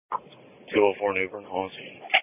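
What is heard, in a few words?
Police Patrol (Dispatch)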